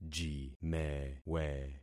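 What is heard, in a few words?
"jimewe", concatenated syllables; Affixing experiment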